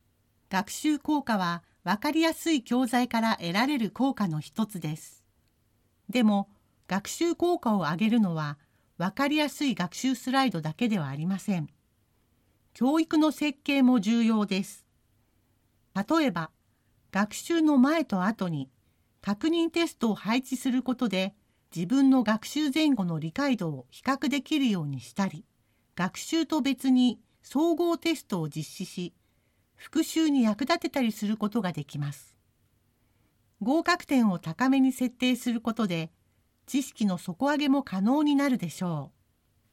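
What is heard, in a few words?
Japanese E-Learning; GoLocalise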